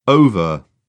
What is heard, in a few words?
"over", British; oʊ - əʊ; English